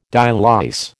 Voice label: "dialyse", American